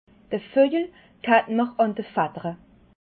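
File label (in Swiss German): "vojel", Alsatian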